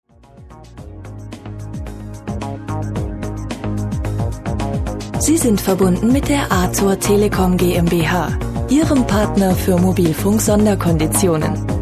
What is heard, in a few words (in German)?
Ansage bei Besetzt; Athur Telecom